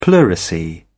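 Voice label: "pleurisy", British English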